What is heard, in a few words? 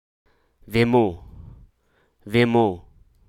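Hruso Aka; concentrate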